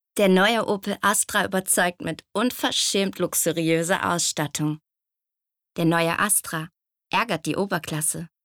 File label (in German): Webung; Opel Astra